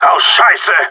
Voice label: bomb fallback, radio; GSG9